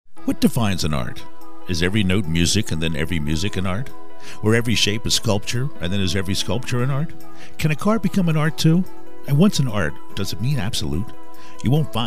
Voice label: auto dealership